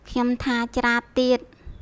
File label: careful craap, khmer trills